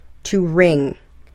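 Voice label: "to ring", English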